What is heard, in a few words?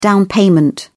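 "down payment", British English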